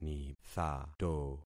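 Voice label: "nithato", concatenated syllables; Affixing experiment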